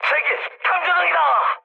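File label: Korean searchlite mood high; War Thunder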